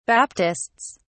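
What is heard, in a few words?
"baptists", British English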